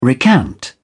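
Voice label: "recant", British English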